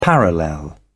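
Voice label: "parallel", British English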